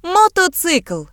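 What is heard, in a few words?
motorcycle, Ukrainian; gcompris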